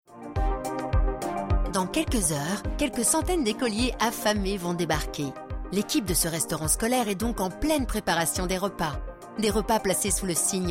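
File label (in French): Film Enthousiaste institutrice, voix off; Restauration Collect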